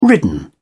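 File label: "ridden", British English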